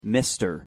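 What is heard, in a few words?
pronunciation "mr", English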